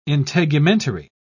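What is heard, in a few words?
"integumentary", British English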